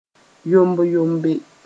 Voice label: "yomb-yombe", Mooré; quickly with agility